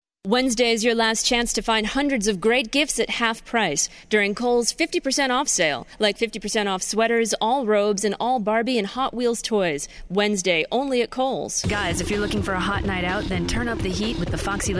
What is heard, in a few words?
commercial montage